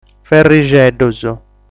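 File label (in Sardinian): "Ferrixeddus", Sardinian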